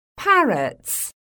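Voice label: "parrots", English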